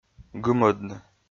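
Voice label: "гымӧдны", Komi, male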